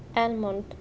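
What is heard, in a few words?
"almond", Omani, female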